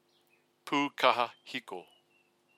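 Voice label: "pukaha hiko", Māori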